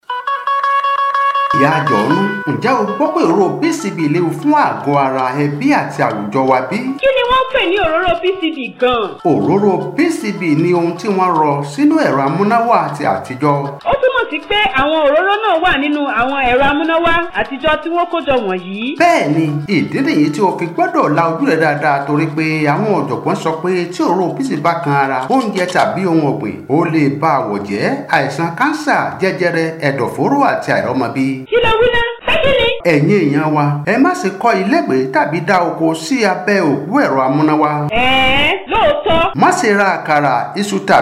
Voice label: Radio Jingle in yoruba